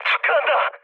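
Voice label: Korean player killed enemy mood med, speech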